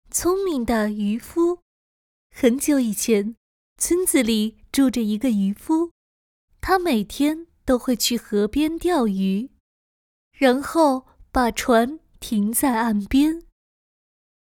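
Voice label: chinese mandarin female character; voice demos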